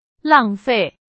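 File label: Chinese, vocabulary; hsk 3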